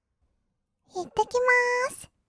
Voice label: "いってきまーす", kawaii voice; ASMR; 挨拶; 1200 kawaii voice!!

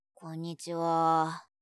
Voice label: こんにちは(哀); あいさつ系

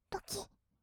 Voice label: "ドキッ", kawaii voice; ASMR; 色々なワンショット

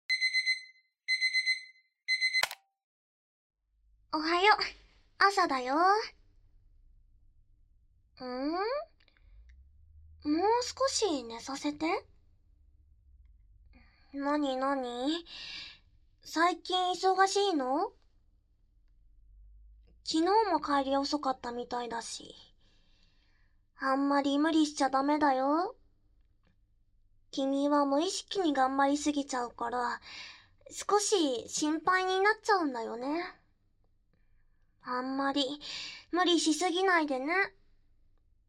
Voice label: 目覚ましボイス

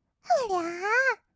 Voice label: 「うりゃあー」, kawaii voice; 1200 kawaii voice!!; 色々なワンショット

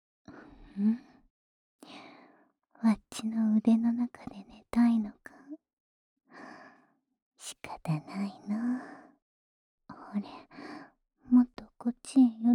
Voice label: 安眠用添い寝ボイス（右耳）, ASMR

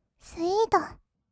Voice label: "スイート", kawaii voice; ASMR; 色々なワンショット

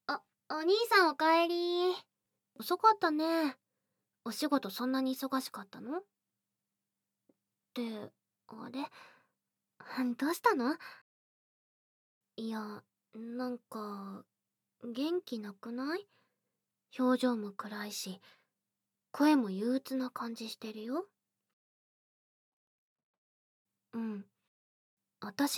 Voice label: 癒しの耳かき 効果音なし; お疲れの時は癒しの耳かき

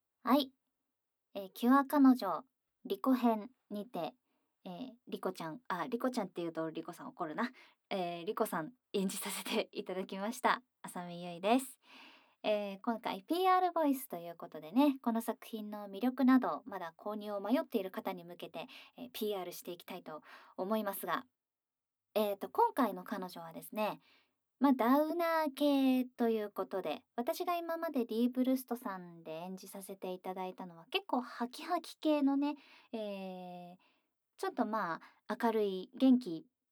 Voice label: PRボイス; ASMR

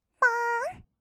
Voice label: "ぽーん", kawaii voice; ASMR; 色々なワンショット

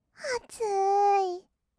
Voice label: あつーい, kawaii voice; ASMR; 色々なワンショット

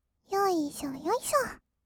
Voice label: よいしょ よいしょ, kawaii voice; ASMR; 色々なワンショット

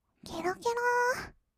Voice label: けろけろ, kawaii voice; ASMR; 色々なワンショット

